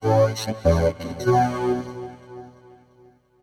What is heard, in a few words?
VOICEFX TEKNO 140, Techno, Voice